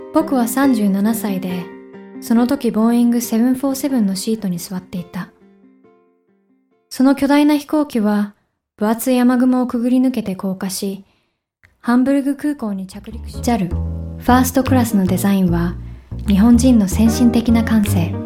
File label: Japanese